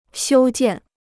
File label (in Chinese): "修建", Chinese